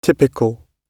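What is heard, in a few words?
"typical", British English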